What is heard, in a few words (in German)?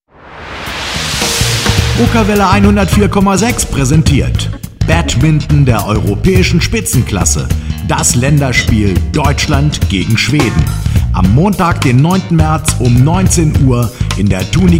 Radiospot